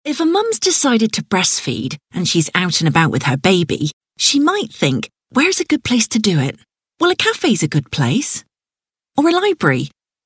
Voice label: commercial, Westcountry Natural Friendly Voice

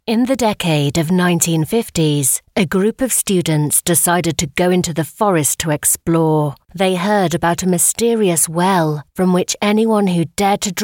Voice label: audiobook, British Voice

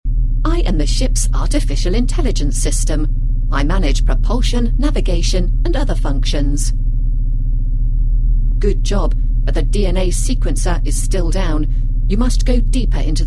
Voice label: gaming and ai, Clear Warm Friendly Voice

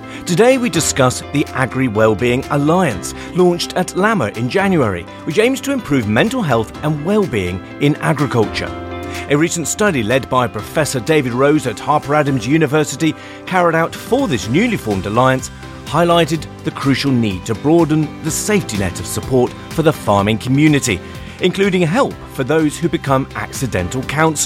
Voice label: podcast

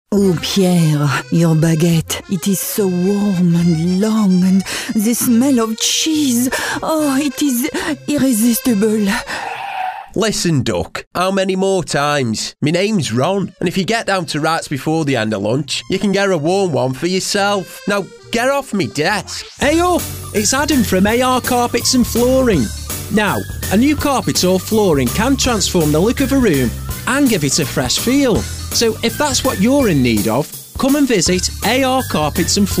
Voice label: stoke on trent accent

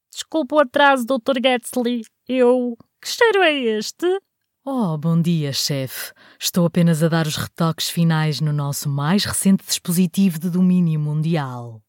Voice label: portuguese female character

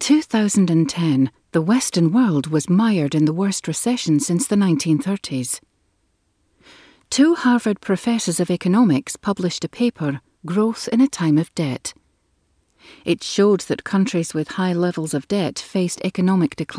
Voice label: scottish

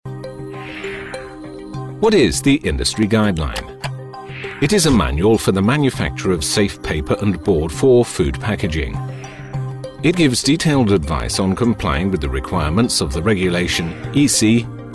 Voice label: e-learning

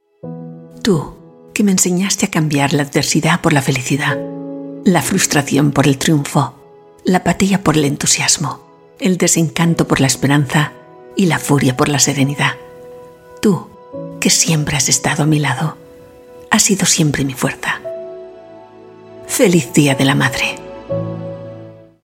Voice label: european spanish natural

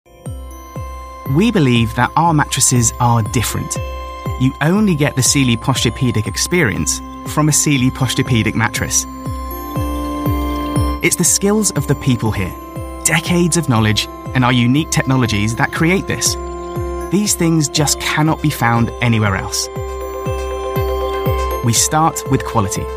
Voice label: brand film explainer

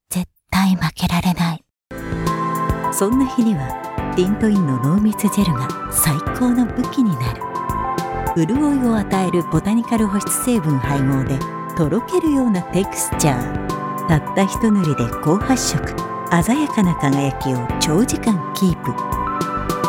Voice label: Japanese voice commercial

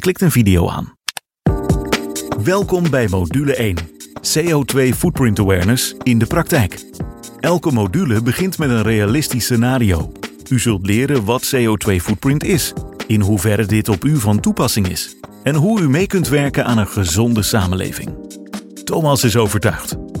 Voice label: Dutch male, e-learning web

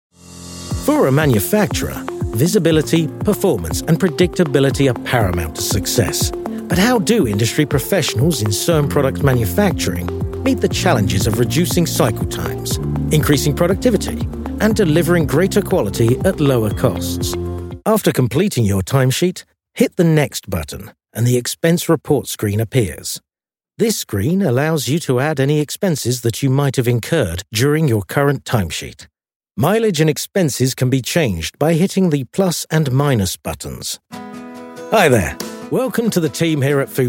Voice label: corporate

